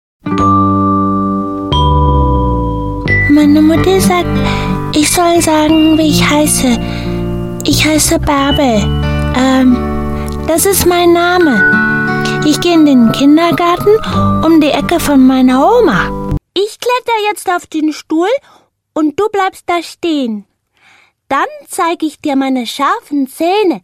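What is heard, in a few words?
German Video Game; GoLocalise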